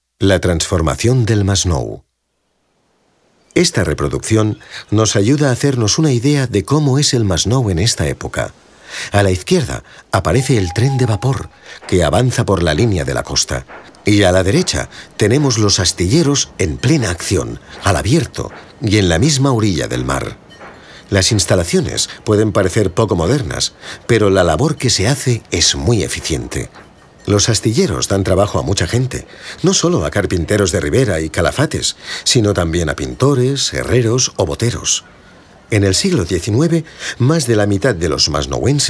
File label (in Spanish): audioguia esp